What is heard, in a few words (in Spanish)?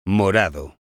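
"morado", Spanish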